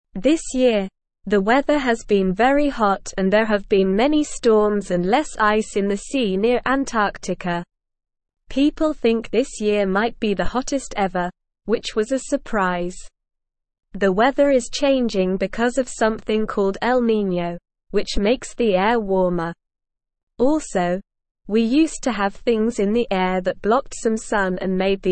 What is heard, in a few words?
English Lower Intermediate SLOW Reading; The World is Getting Hot What Can We Do; English Newsroom